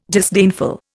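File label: "disdainful", Indian English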